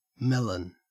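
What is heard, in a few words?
"melon", Australian English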